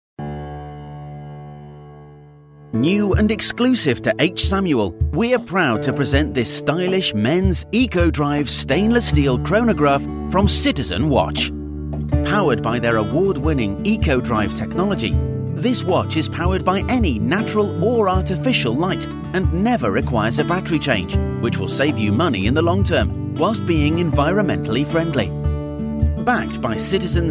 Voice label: corporate explainer